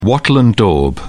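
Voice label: "wattle and daub", British English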